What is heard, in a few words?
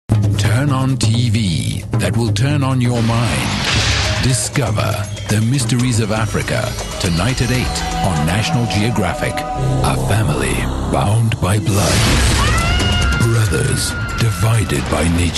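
South African English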